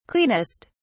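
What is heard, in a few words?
"cleanest", English, uk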